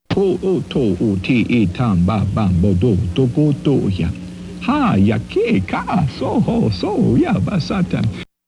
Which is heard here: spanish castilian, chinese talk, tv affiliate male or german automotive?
chinese talk